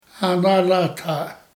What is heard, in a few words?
"Anaalaatááʔ", Dane-ẕaa; Rêveurs